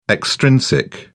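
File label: "extrinsic", British English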